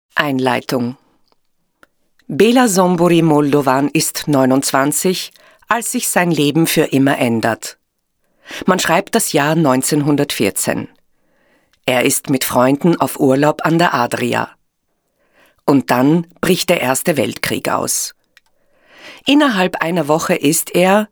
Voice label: german austrian narration

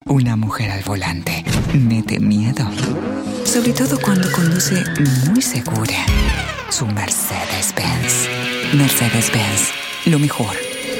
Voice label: spanish latin american commercial

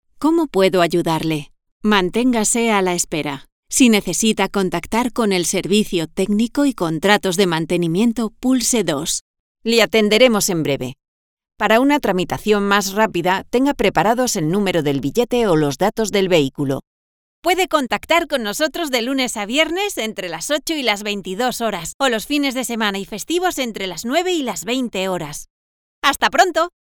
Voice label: spanish ivr

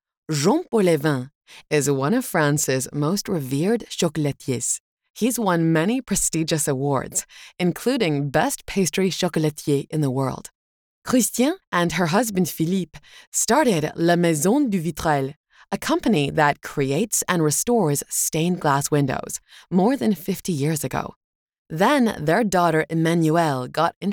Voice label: english international documentaries

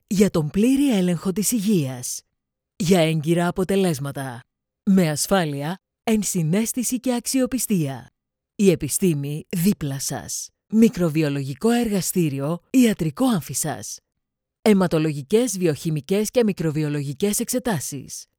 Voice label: greek corporate videos

